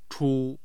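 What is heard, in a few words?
"chu", Mandarin Chinese, tone 1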